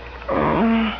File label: Sensual Women; Desktop Studio 2000; People